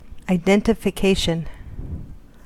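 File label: "identification", US English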